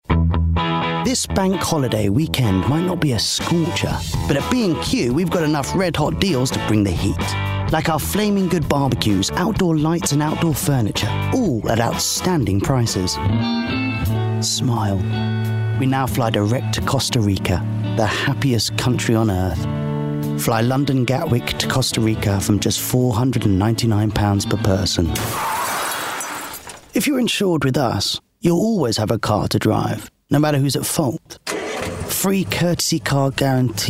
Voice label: commercial voice

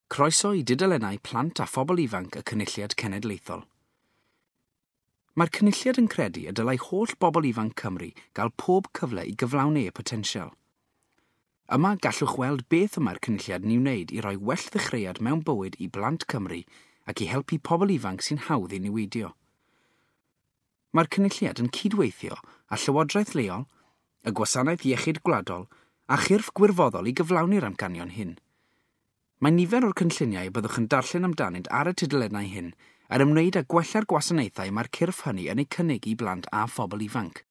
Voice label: welsh

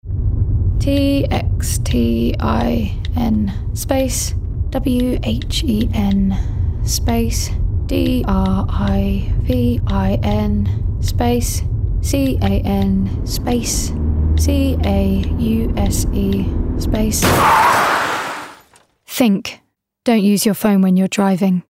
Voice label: COMMERCIAL Road Safety

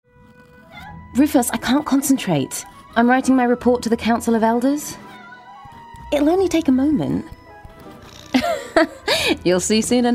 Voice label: Animation

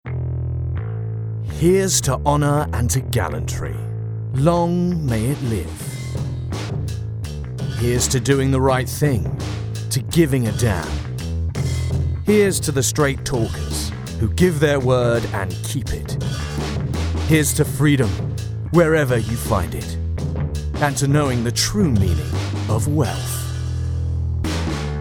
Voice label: Commercial Montage

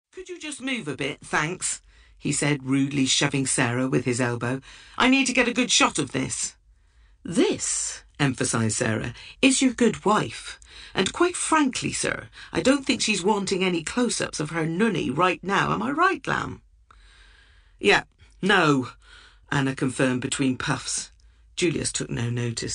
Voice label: AUDIOBOOK; BECAUSE OF YOU

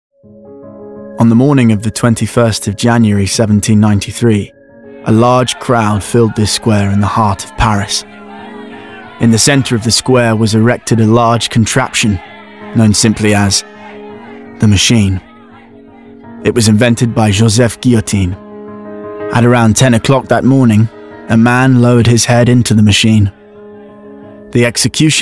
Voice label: Narration; Revolution

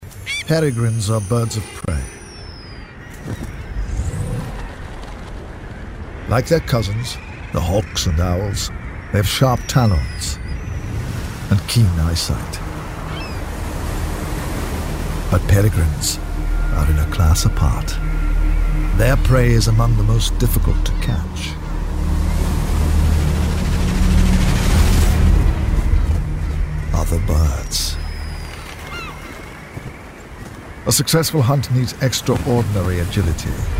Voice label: Natural World narrative

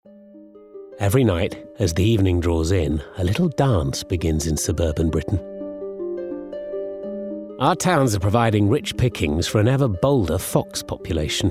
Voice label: DOCUMENTARY Foxes

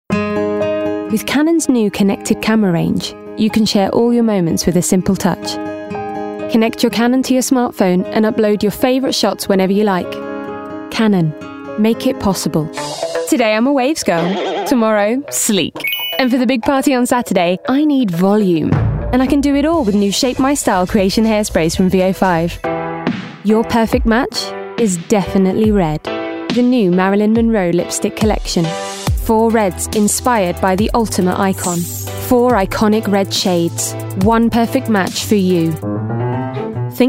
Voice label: commercial voice

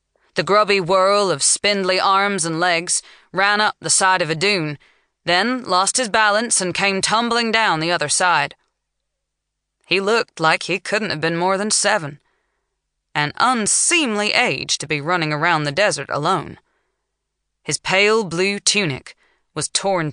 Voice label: Audiobook Sample; Fall of The Argosi

